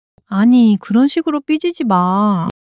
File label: sadness, monologue